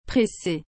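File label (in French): "pressé", French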